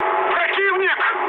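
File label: Russian enemy detected mood high, speech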